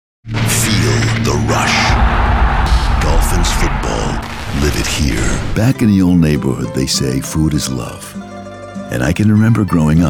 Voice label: commercial, men